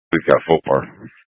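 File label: Incident Tac 1, Scanner audio recording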